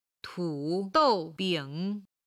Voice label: "tudoubing", chinese